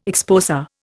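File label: "exposé", Indian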